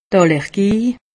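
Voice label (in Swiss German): "allergie", Haut Rhin; medecine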